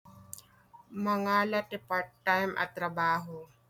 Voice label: Ilocano; taking part-time jobs - mangala ti part-time a trabaho